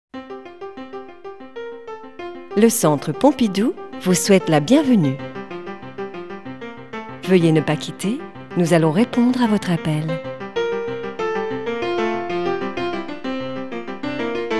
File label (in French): REPONDEUR Informatif; MIX́mo; Beaubourg